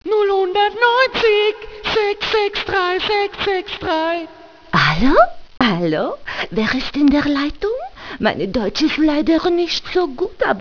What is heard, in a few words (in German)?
weiblich